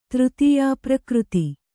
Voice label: "ತೃತೀಯಾಪ್ರಕೃತಿ", Kannada